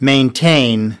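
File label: "maintain", English word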